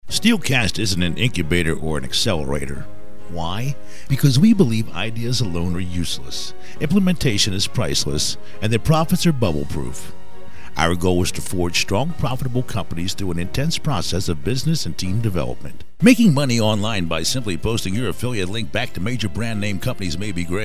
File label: infomercial